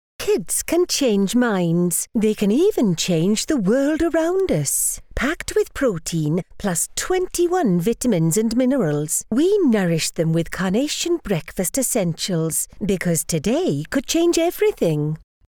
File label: welsh